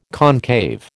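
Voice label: "concave", American English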